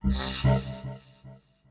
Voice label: VOICEFX TEKNO 140, Techno, Voice; eJay Sound Collection 2